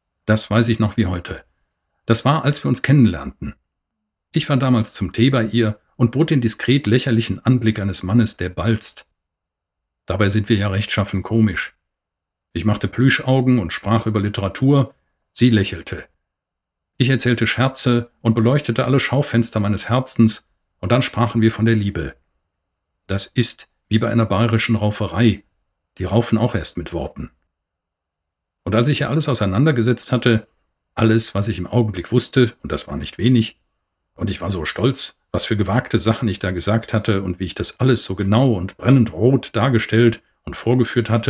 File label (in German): lesung; schloss gripsholm